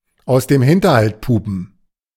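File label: "aus dem Hinterhalt pupen", German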